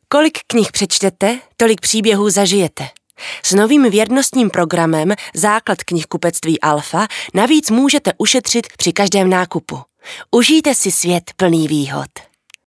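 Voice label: Czech, female, commercial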